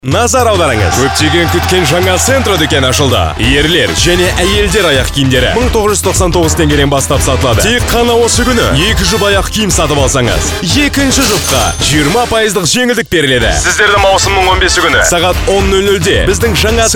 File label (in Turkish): Kazakca Erkek, Erkek Ses; seslendirme